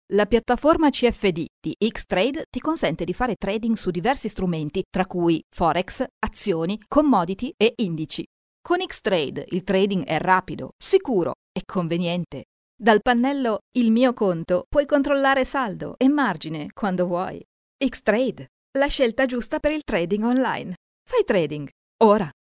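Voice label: Italian, female, voice over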